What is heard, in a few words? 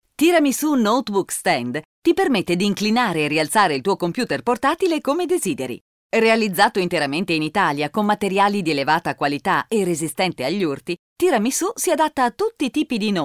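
Italian, female, elearning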